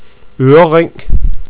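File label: "oerering", Danish; ktuberling; tdegames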